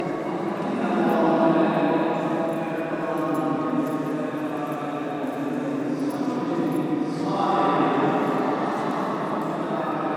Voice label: Hagia Sofia Indoors Prayer; Istanbul; field-recordings; hagia-sophia